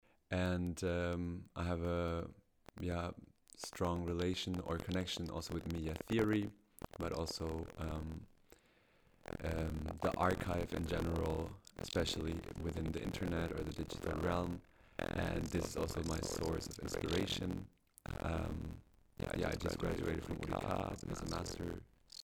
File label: Distorted Audio